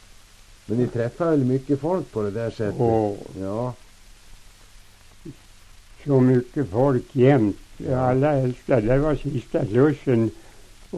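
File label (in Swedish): Säterbo Västmanland, dialekter, svenska sagor; Folkminnen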